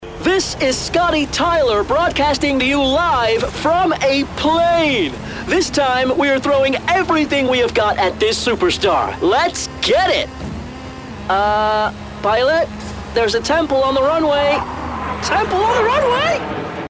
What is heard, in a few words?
game American Announcer